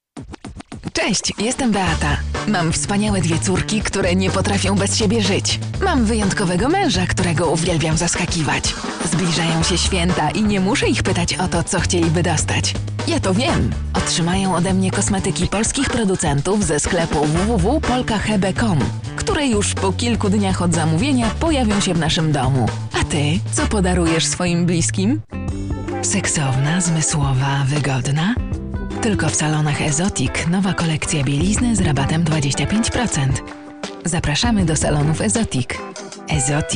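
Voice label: Polish, female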